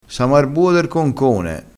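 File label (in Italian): "samo", vocabolario dialettale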